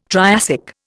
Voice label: "Triassic", Indian